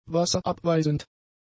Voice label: "wasserabweisend", German; adjectives